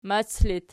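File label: "mac" locative, Archi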